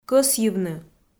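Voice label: "кӧсйывны" Komi, female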